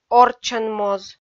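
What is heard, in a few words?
"орччӧнмоз", Komi-Zyrian, female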